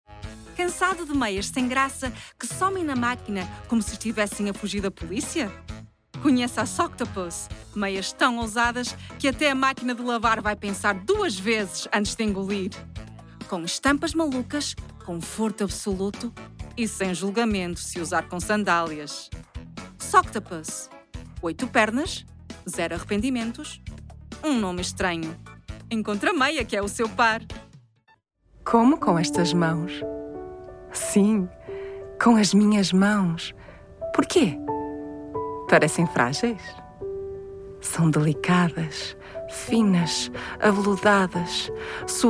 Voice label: Portuguese